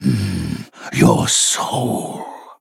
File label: Male Arakkoa Attack